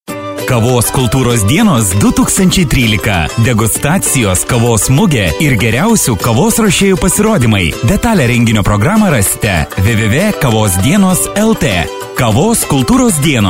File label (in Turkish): Litvanca Erkek, Erkek Ses; litvanca seslendirme; erkek sesler